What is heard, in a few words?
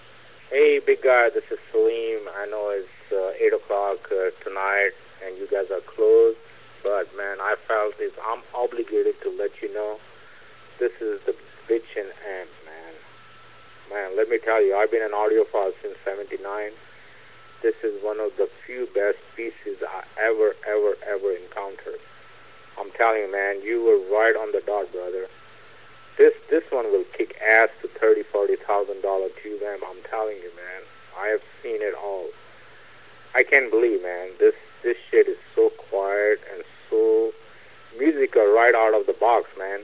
phone call; message left on the voicemail; Hey big guys, this is Salim I know it is 8 o'clock tonight and you, guys, are closed, but man I found it obligated to let you know this is t; DiaLogue Two integrated amplifier